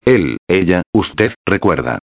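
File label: "recuerda", Spanish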